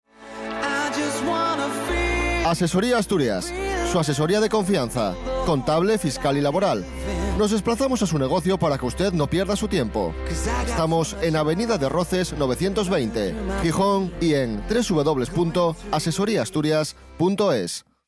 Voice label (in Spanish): Asesoría Asturias cuña radio; RTPA